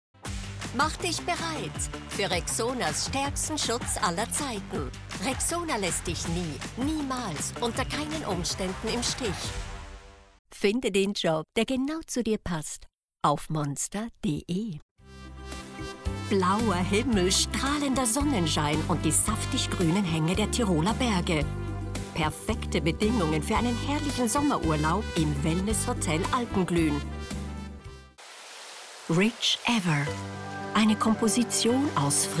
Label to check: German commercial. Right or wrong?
Right